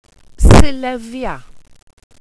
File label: "sulevia", welsh pronouciations; Wales/welsh names